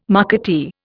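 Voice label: "marketeer", Indian English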